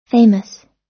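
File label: "famous", American English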